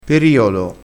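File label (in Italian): "periolo", dialetto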